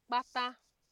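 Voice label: "kpata", Nigerian Pidgin, female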